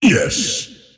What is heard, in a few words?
lasthit pain; dota; earthshaker; arcana